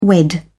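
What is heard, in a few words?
"unwed", English, British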